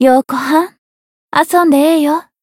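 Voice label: Voice encounter, Japanese; hoozuki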